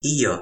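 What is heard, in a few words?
"ijo", Toki Pona; linku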